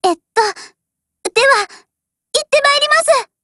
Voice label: OPERATION BEGIN JP